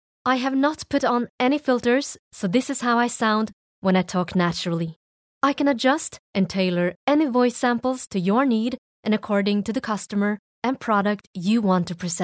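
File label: Norwegian, female, accented English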